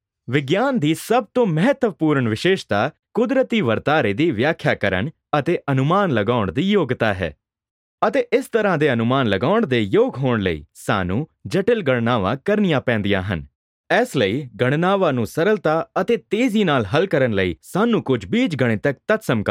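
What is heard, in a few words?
Punjabi, male, eLearning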